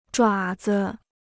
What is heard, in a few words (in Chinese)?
"爪子", Chinese